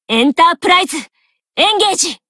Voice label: rage; ff2